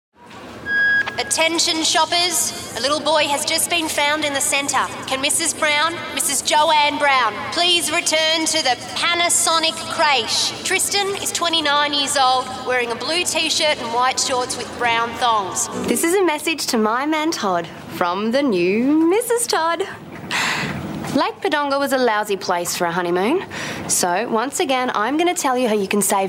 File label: voice acting